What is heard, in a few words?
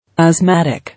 "Asthmatic", English